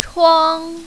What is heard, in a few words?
"chuang1", mandarin, female